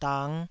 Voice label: "dang5", Taiwanese

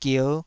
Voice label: "gier5", Taiwanese syllable

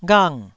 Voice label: "ghang4", Taiwanese

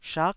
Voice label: "shak9", taiwanese Syllables

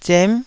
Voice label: "zem9", taiwanese syllables

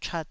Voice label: "chat2", taiwanese

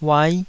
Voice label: "uai5", Taiwanese

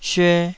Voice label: "syue2", Taiwanese

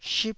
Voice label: "ship1", taiwanese

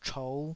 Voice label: "chou5", Taiwanese

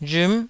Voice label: "rhm9", taiwanese

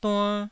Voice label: "duann1", Taiwanese

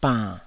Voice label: "bann3", taiwanese

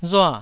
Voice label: "rua4", taiwanese

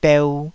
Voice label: "beu2", taiwanese

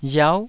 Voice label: "riau9", taiwanese

